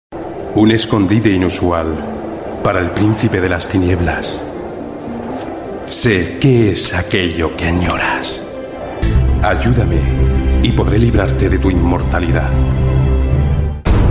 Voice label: Euro Spanish Trailers, Male; Spanish European Male